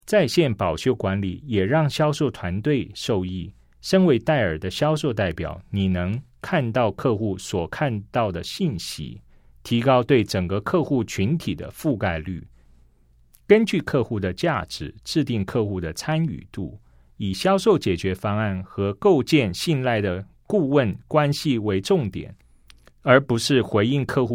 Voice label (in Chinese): CHINESE